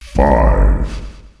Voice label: "five", announcer male; Unreal Tournament; ut2004

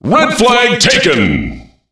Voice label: red flag taken, announcer voice lines; Unreal Tournament; ut2003

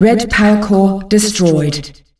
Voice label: Red Powercore destroyed, Announcer Voice, female; Unreal Tournament; ut2004

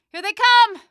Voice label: here they come, female; Half-Life 2

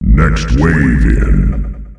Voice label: "next wave in", announcer male; Unreal Tournament; ut2004

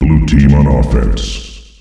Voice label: Blue Team on Offence, Announcer Voice, male; Unreal Tournament; ut2004

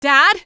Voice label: scared; eli lab

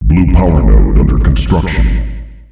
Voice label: Blue powernode under construction, announcer male; Unreal Tournament; ut2004

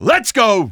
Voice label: lets go, male; Half-Life 2

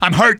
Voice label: im hurt, male; Half-Life 2

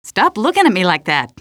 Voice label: question, female

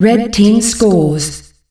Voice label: Red Team Scores, female announcer; Unreal Tournament; ut2004

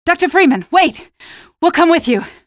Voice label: squad reinforce group, female; Half-Life 2

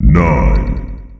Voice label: "nine", announcer male; Unreal Tournament; ut2004

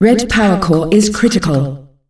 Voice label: Red Powercore critical, female announcer; Unreal Tournament; ut2004

